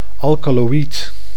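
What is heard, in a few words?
"alkoloid", Dutch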